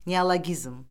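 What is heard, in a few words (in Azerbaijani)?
"neologizm", Azerbaijani; Orfoqrafiya Lüğəti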